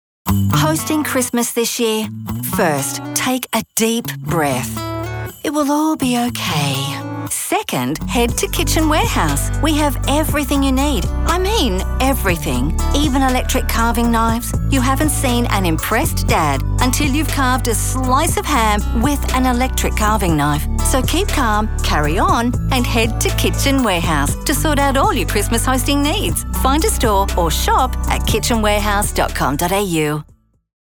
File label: Australia English; Christmas Commercials; Christmas Commercials 2023; Kitchen Warehouse